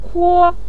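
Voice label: "kuo", Mandarin Chinese, neutral tone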